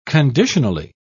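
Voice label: "conditionally", British English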